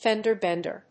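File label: "fender bender", English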